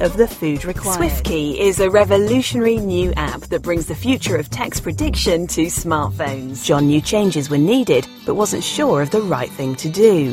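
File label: British English, Variabel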